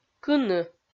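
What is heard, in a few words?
"кыны", Komi-Zyrian, female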